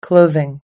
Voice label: "clothing", us english